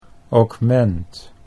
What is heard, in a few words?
"augment", Dutch English